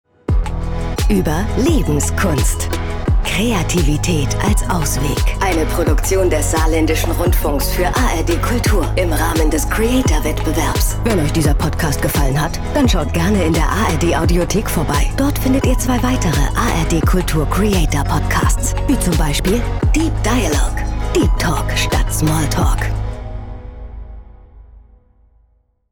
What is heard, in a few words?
Podcast Outro; ARD Kultur